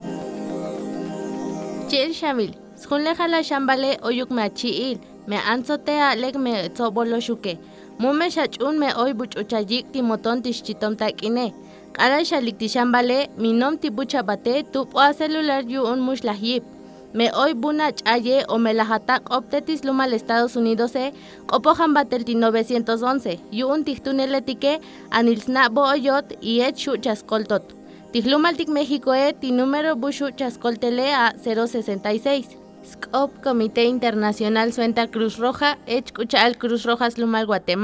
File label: TSOTSIL